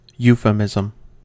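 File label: "euphemism", US English; pronunciations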